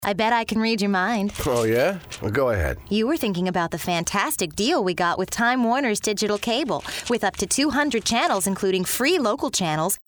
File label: Commercial Female, Peppy, Youthful, Clear